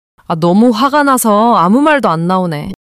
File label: anger; monologue